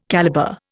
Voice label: "calibre", Indian